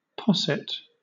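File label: "posset", British English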